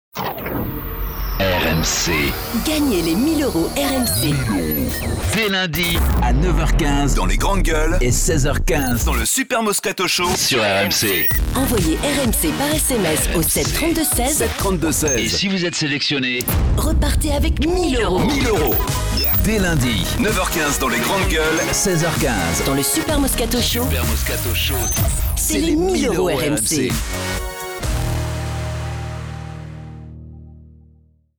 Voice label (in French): Pub Radio; RMC 1000 euros